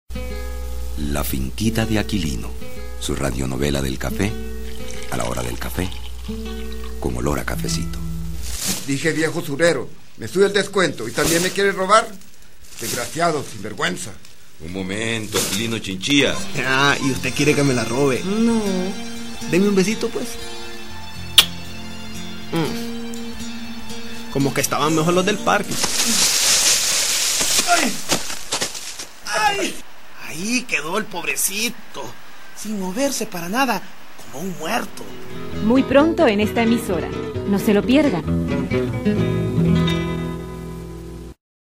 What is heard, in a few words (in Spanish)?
Cuña radial